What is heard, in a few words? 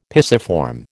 "pisiform", American